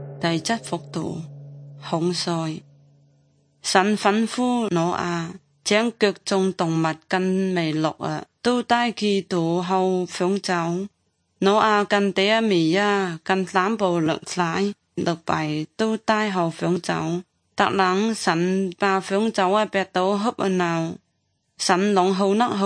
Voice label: good news zhuang guigang guiping

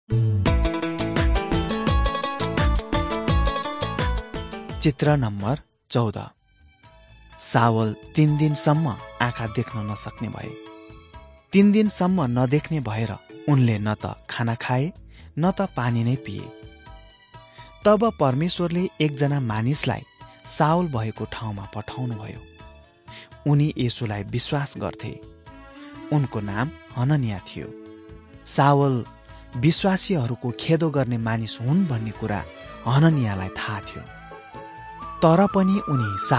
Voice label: acts of the holy spirit nepali

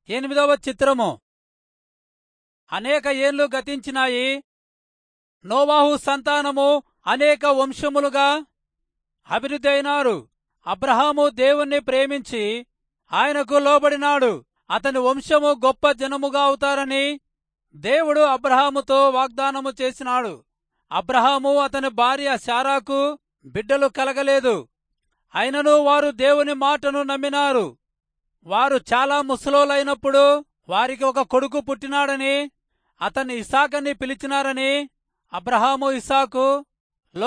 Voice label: telugu rayalseema; good news

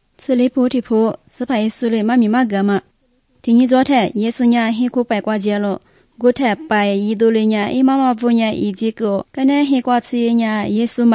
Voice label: good news lisu southern, drama; bible

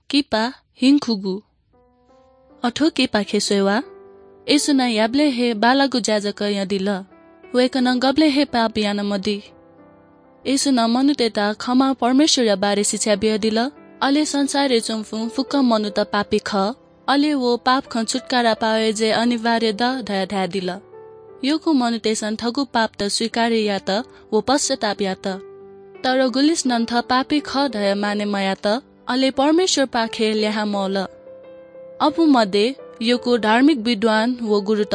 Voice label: good news newari bhaktapur; baalaangu khaan; bible

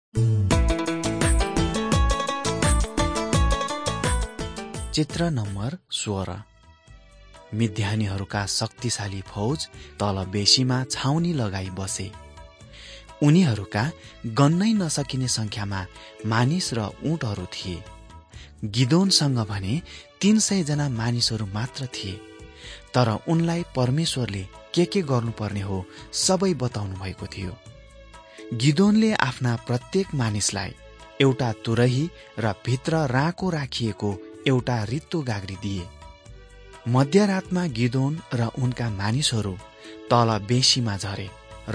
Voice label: victory through god nepali

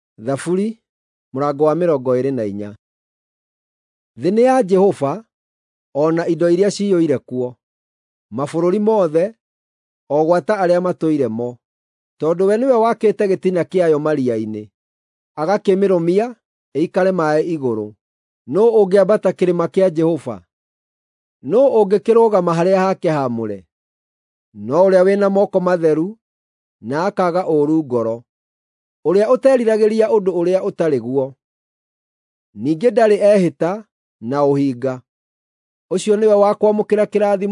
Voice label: psalms, gikuyu drama

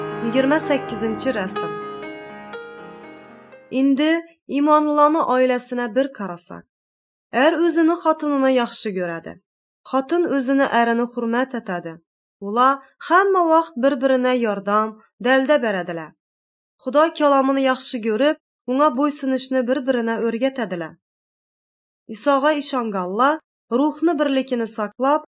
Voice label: uzbek northern kipchak; good news

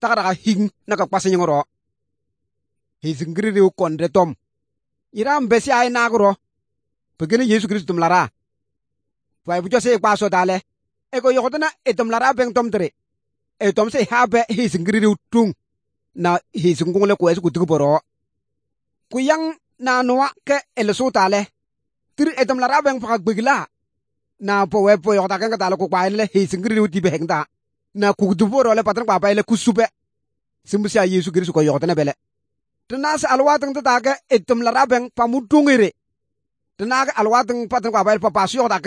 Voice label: good news, kabiye bible

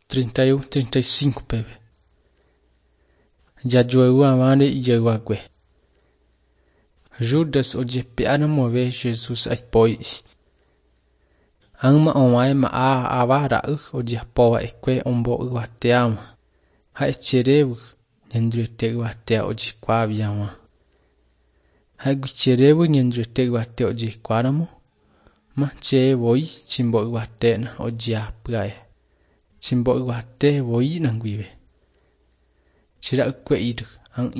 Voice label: john guarani mbya, bible

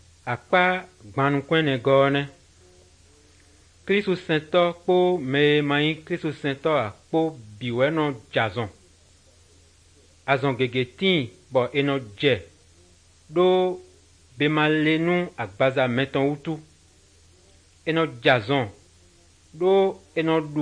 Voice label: good news, fon fongbe bible drama

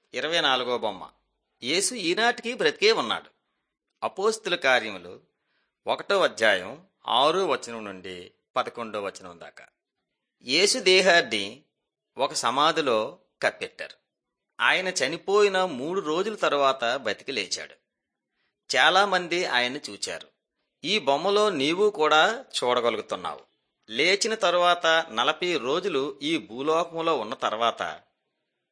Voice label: telugu bangladesh; mighty men of god

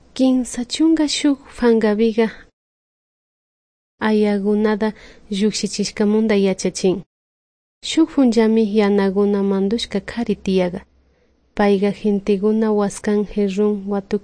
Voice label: good news quichua de salasaca

